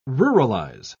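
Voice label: "ruralize", British English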